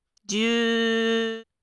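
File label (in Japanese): "じゅ", Japanese syllable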